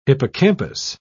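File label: "hippocampus", British English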